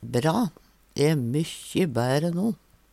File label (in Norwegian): "bra", Numedalsmål